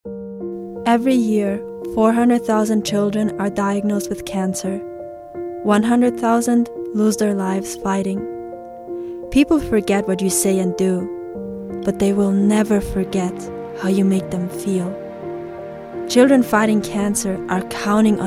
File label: Commercial Voicereel